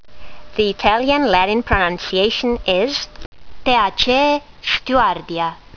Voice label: latin; Stewartia spp